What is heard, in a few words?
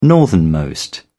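"northernmost", British English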